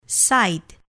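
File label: "side", English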